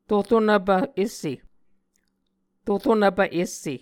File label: "tor onvpv esse", Muscogee